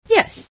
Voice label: "yes", female